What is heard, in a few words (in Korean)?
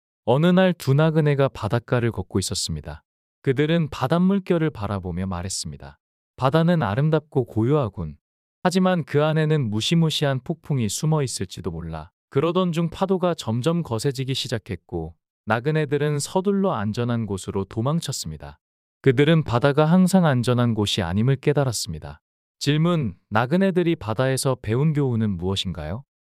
이야기 남자; 바다가의 나그네들